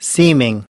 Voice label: "seeming", us